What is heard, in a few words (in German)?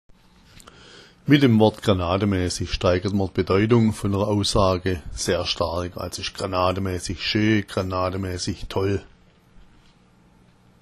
granada, schwaebisch